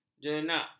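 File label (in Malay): "jenak", Malay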